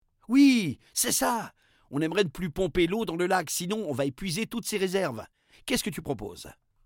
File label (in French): narrateur interaction tuyaux lac; La-Fabrik